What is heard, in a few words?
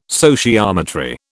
"sociometry", British